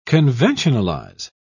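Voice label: "conventionalize", British English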